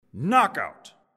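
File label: "KO", announcer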